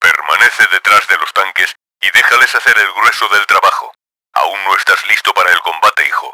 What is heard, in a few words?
hang back, Spanish; Battle Engine Aquila; XBOX